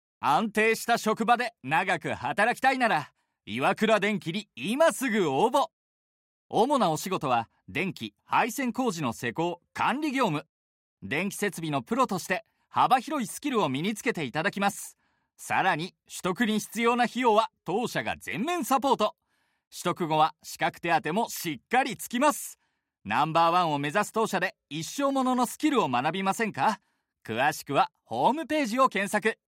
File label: male; 元気な、勢いのある